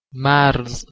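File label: "marz", Persian, male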